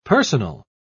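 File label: "personal", English word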